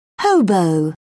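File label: "hobo", British English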